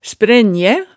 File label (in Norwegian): "sprænjæ", hemsedalsmaalet